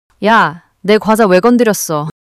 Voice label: anger; monologue